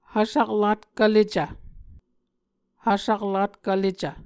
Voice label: "hvsaklatkv lecv", Muscogee

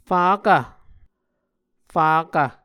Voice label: "fakv", muscogee

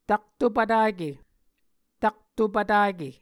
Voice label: "taktopvtake", Muscogee

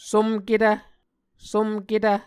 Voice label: "somketv", Muscogee

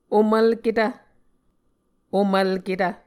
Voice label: "omvlketv", Muscogee

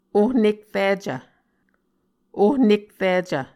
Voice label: "ohnekricv", Muscogee

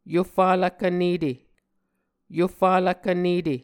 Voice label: "Yofalv Kvnete", Muscogee

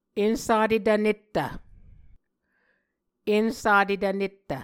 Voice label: "ensatetv nettv", muscogee

